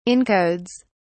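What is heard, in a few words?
"encodes", British English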